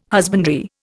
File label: "husbandry", Indian